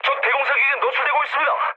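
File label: Korean damaged aa mood low, speech; War Thunder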